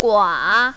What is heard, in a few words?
"gua3", mandarin, female